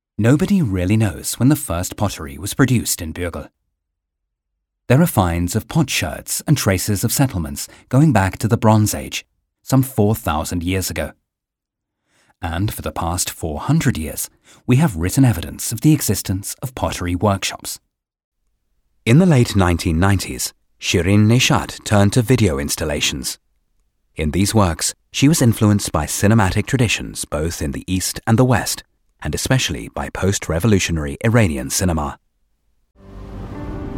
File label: museum guides